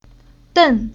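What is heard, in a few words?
"Den", Chinese syllable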